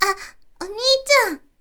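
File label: "oniityan", Japanese, girl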